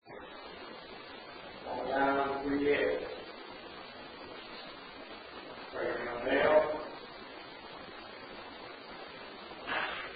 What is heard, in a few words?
Males voice No; Case 020 Ballard Building